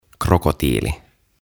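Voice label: "Pingviini" Finnish; Penguin; OTAVA KONSERNI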